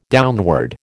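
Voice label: "downward", American English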